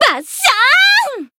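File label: Voice finish Japanese; millecha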